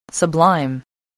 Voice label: "sublime", spelling

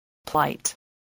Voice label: "plight", English

